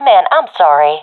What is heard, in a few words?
sorry, professional fem; vo; Counter Strike Model Pack; CS:GO